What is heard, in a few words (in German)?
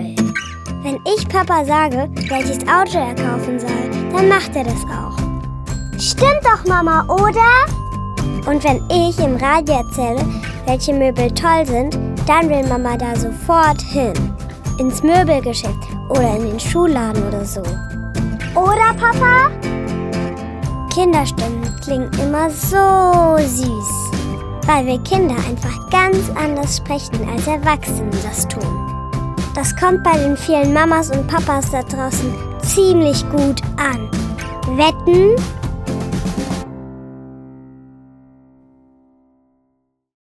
Werbespot